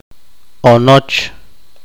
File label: poqomchi; almendra